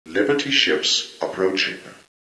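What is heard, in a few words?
shuttle arrive, intercom; ProjectMobius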